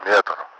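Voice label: meter, Russian; Mi-8MT; DCS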